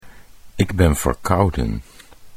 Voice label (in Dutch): "ik ben verkouden", Dutch